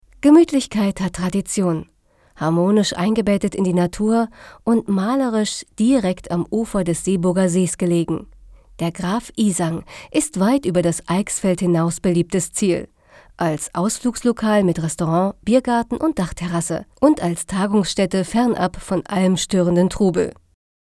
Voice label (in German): de Werbung, deutsch frauen; sprecher